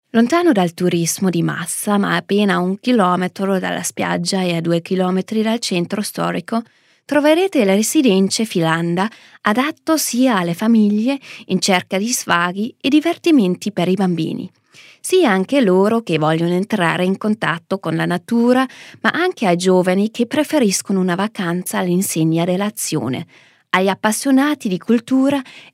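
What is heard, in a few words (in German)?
Italian Kommentar Neutral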